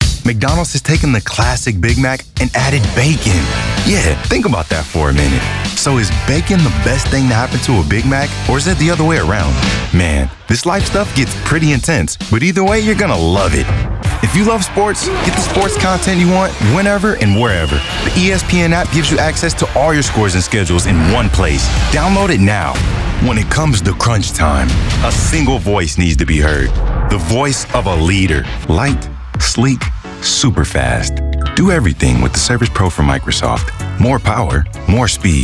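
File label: 30s40s Male African American Commercial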